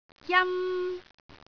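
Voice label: "jan1", Cantonese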